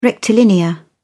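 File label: "rectilinear", British English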